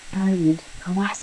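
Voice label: voice acting, es, female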